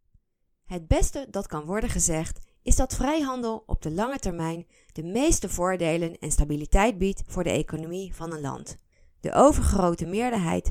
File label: e-Book, voice